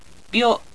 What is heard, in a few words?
"byo", Japanese